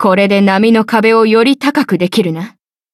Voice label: levelup ja; Cookie Run